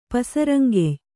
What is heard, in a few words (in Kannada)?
"ಪಸರಂಗೆಯ್", Kannada